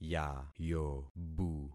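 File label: "yayobu", concatenated syllables; Affixing experiment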